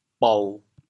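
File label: "bou1", Teochew, chaoyang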